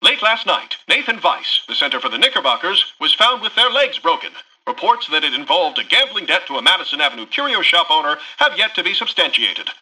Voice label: Newscaster headline